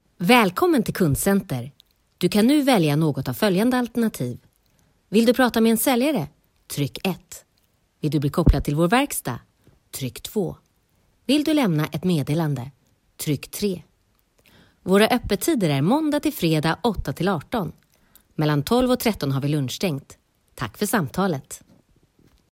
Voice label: kundcenter infomercial